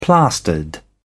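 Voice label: "plastered", British English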